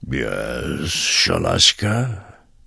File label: Tuskarr Male Greeting; Warrior